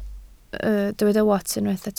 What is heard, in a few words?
"wats", Welsh